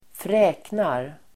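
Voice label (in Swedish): "fräknar", Swedish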